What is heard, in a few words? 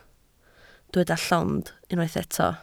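"llond" Welsh, spoken word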